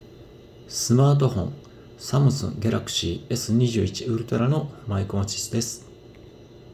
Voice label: Samsung Galaxy S21 Ultra microphone sound quality

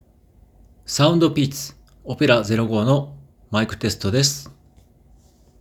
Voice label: SOUNDPEATS OPERA 05 mic sound

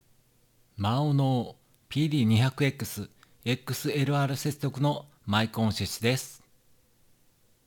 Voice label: maono pd200xs xlr; maonocaster

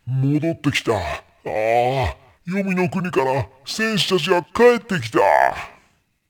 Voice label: maono amc2 neo voice change; maonocaster

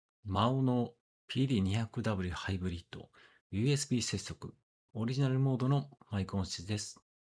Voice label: maono pd200w hybrid usb microphone sound quality

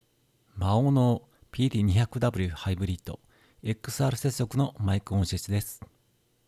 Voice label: maono pd200w hybrid xlr microphone sound quality

